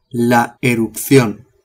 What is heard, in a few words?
"erupcion", spanish